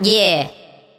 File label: "yeah", chipmunk; ooh ahh